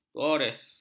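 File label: "gores", Malay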